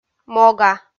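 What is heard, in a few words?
"мога", Komi, female; kpv; audio dict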